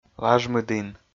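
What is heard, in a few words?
"лажмыдін", Komi, male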